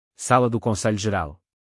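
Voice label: "sala do conselho geral", Portuguese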